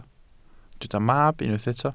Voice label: "mab", Welsh

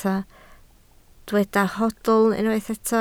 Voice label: "hoedl", Welsh

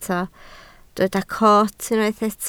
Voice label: "coot", Welsh

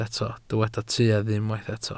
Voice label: "tuedd", Welsh

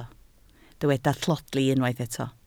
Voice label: "tlodi", Welsh

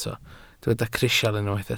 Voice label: "crisial", welsh

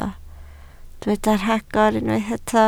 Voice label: "rhagor", Welsh

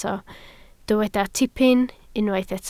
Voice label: "tipyn", Welsh

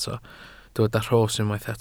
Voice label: "rhos", welsh

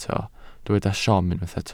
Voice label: "siom", Welsh

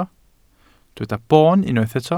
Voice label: "boon", Welsh

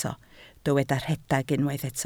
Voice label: "rhedeg", Welsh

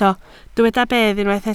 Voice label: "bedd", Welsh

